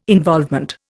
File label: "involvement", Indian